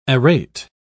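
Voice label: "arete", English, British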